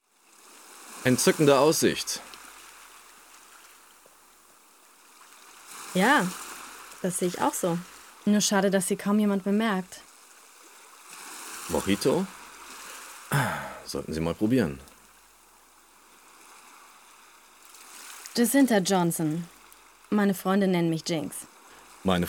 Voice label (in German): Synchron Realfilm; Demo Synchron Realfilm (Synchronregie: Mario von Jascheroff)